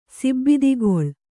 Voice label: "ಸಿಬ್ಬಿದಿಗೊಳ್", Kannada

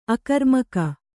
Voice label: "ಅಗ್ರಮುಖ", Kannada

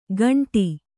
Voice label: "ಖಾಂಡಿ", Kannada

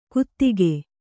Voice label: "ಗುದಿಕೆ", Kannada

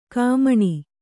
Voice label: "ಘಮ್ಮನ", Kannada

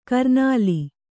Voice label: "ಕರ್ನಲ್ಲು", Kannada

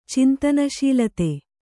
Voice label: "ಚಿಂತನಶೀಲತೆ", Kannada

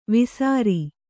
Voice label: "ವೇಸರ", Kannada

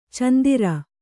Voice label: "ಚಂದಿರ", Kannada

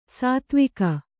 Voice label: "ಸೇದೆವುಗು", Kannada

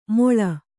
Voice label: "ಮೋಳ", Kannada

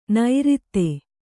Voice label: "ನೈರಿತ್ತೆ", Kannada

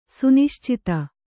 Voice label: "ಸುನಿಶ್ಚಿತ", kannada

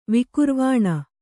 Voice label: "ವಿಕುರ್ವಾಣ", Kannada

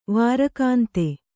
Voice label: "ವಾರಕಾಂತೆ", Kannada

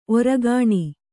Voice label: "ಓರೆಗಣ್ಣು", Kannada

